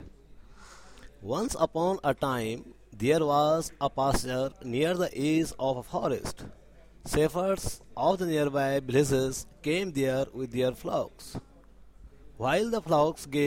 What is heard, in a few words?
story